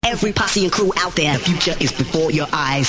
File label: "every posse crew outthere", male; MALE MISC